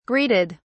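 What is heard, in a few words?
"greeted", British English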